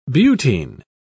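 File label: "butene", English, British English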